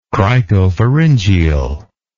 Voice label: "cricopharyngeal", English